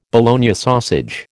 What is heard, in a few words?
"Bologna sausage", American